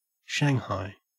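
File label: "shanghai", Australian English; pronunciations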